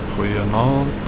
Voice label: good evening, Afrikaans